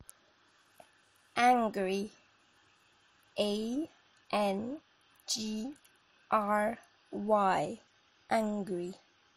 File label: Angry